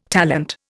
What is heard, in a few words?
"talent", Indian